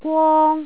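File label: "gwong1", Cantonese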